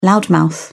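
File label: "loudmouth", British English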